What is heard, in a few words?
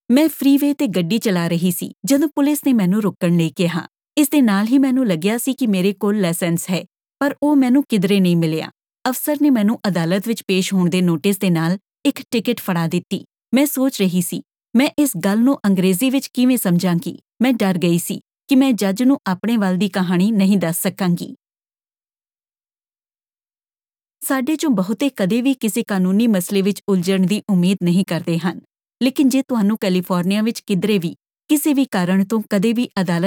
Traffic PSA Punjabi